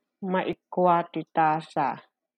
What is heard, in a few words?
Ilocano